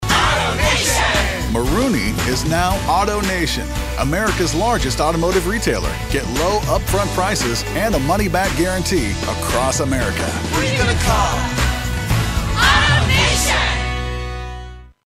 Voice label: Friendly